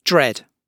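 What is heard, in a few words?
"dread", British English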